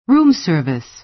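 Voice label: "room service", American English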